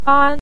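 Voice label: "ban" neutral tone, Mandarin Chinese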